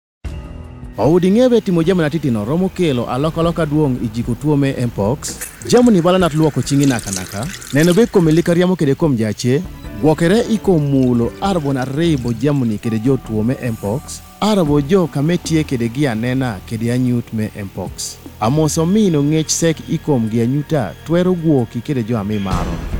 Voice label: Narrator KUMAM, radio spots; MPOX; The MPOX Campaign Safe Circles